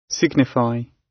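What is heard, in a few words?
signify, British; Language, linguistics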